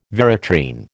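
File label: "veratrine", American English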